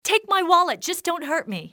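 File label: wallet, english female; XBOX; civ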